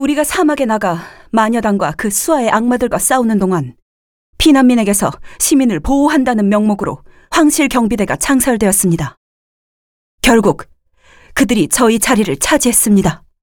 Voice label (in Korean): 여자 30대 정의로운 신뢰감 있는